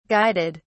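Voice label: "guided", British English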